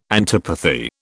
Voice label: "antipathy", British